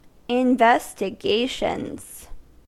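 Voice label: "investigations", US English; pronunciations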